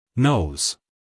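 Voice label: "nose", American English male; everyone-can-use-english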